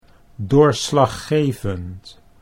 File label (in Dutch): "doorslaggevend", Dutch